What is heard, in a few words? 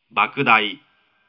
dereverberated